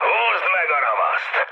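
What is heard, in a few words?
attack position, mood med, Hungarian; War Thunder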